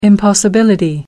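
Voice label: "impossibility", British English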